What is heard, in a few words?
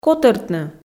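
"котӧртны", Komi, female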